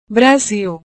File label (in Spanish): "Brasil", Portugués